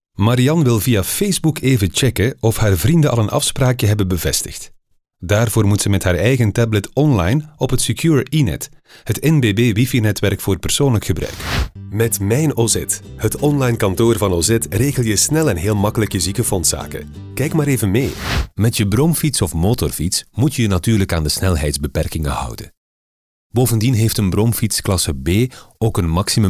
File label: showreel e-learning